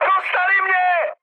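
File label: aircraft destroyed, Czech, mood high; War Thunder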